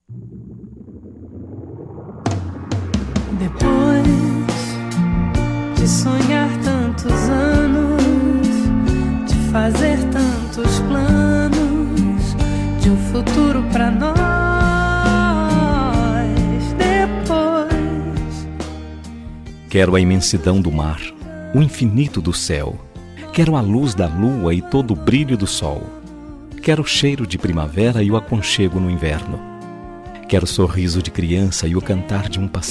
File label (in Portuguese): ANIV PARA NOIVA, telemensagem